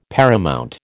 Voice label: "paramount", American